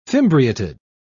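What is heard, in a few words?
"fimbriated", British English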